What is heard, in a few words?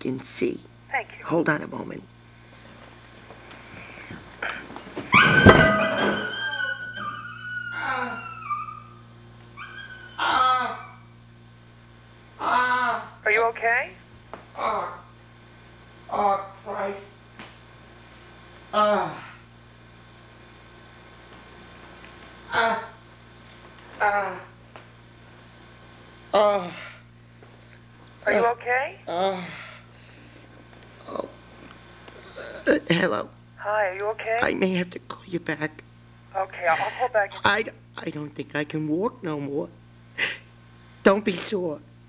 Chainsaw Shock